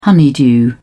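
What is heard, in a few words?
"honeydew", British English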